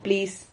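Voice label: "plis", Spanish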